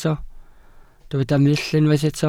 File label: "mull", Welsh